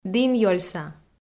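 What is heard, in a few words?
"дінъёльса", Komi, female; kpv audio dict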